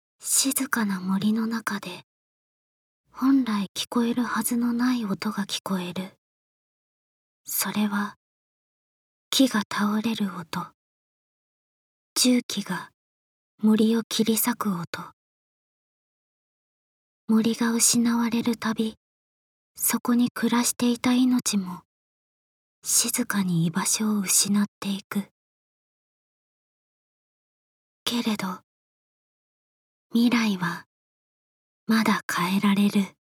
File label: Narration